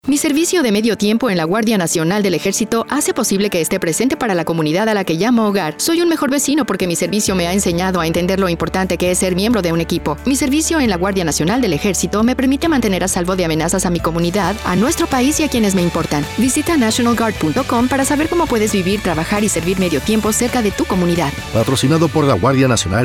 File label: radio spanish